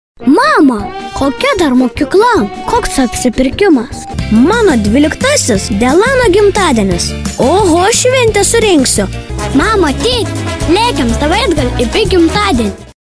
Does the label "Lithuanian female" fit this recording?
Yes